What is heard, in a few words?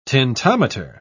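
"tintometer", British English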